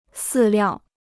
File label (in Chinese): "饲料", Chinese